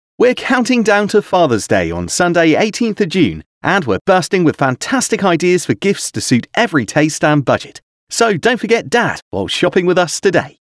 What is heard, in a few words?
UK Fathers Day Male